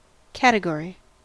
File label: "category", us